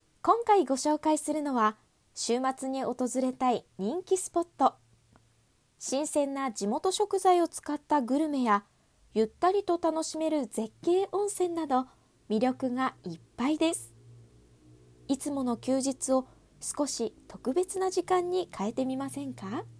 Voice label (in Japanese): ナレーション